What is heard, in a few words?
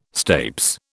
"stapes", British